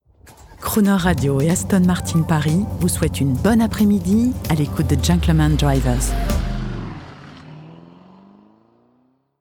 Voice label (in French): JINGLE SPONSO BON APRES MIDI; ASTON MARTIN